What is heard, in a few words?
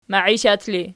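"maiishat" ergative, Archi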